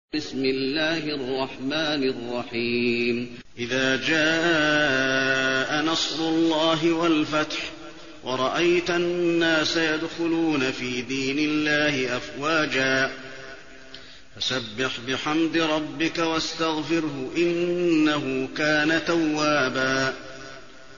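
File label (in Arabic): النصر, تلاوة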